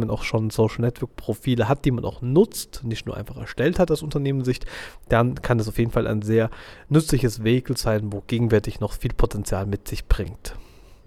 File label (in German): werbung